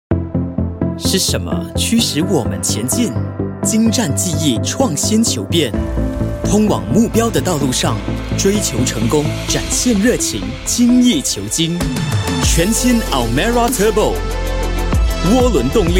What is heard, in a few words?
Mandarin - Trustworthy, Professional, Credible, Cool; Nissan